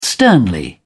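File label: "sternly", British English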